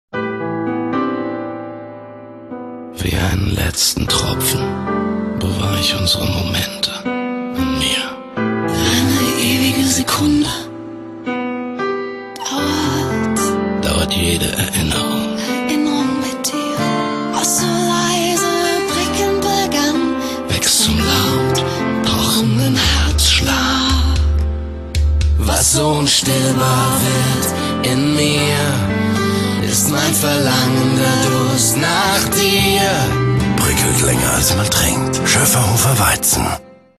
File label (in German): Sanft